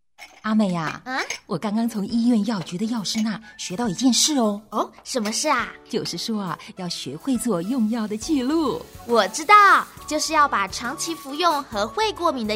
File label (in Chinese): 用藥紀錄-國語版